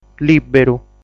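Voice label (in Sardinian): "Lìberu", Sardinian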